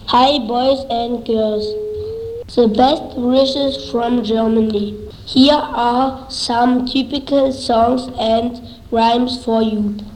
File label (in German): Ansage; Haldensleben; Comenius